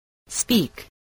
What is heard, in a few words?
"speak", English voice